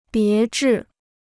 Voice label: "别致", Chinese

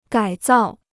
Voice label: "改造", Chinese

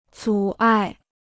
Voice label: "阻碍", Chinese

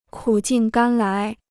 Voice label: "苦尽甘来", Chinese

